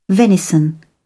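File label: "venison", British English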